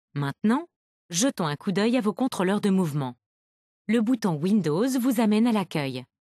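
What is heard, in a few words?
windows button, French (Canada); retaildemo; Mixed Reality Learning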